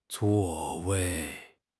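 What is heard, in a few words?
"zuo4 wei4", Chinese; HSK4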